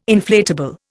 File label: "inflatable", Indian